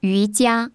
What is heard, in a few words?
chinese yoga; samsung YP-U5; Audio User Interface